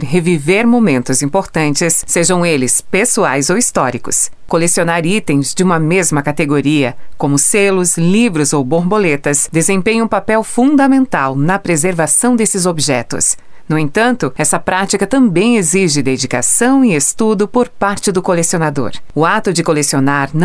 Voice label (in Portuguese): audio guia painel colecionismo voz feminina; exposicao casa das borboletas